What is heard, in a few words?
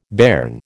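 "bairn", American English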